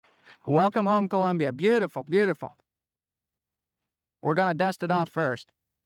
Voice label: Radio; dxRevive